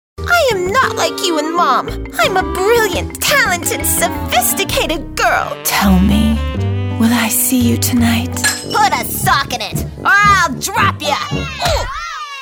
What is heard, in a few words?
voiceover character women